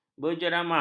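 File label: "berjeramah", Malay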